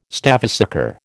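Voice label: "stavesacre", American English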